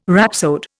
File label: "rhapsode", Indian